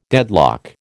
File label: "deadlock", American